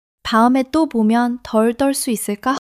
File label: excitement